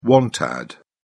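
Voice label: "want ad", English, British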